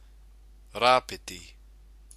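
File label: "rapeti", Māori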